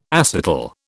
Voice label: "acetal", British